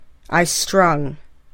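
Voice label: "I strung", English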